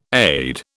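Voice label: "aide", British English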